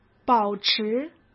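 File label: "保持", Mandarin Chinese; chinese flashcards; hsk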